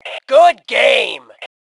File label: Compliment Good Game, Female; T2 Female 04